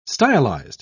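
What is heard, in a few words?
"stylised", English, uk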